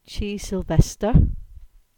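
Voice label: "Chysauster", Cornish; place-names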